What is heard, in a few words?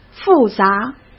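"复杂", Mandarin Chinese; hsk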